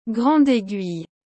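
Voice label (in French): "grande aiguille", French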